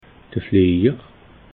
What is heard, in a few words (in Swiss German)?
"fliejer", alsace